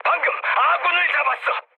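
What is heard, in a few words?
Korean player killed ally mood med, speech; War Thunder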